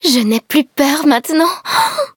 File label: levelup, fr; Cookie Run